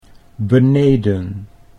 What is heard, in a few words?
"beneden", Dutch